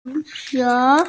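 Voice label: "zu qiu" Chinese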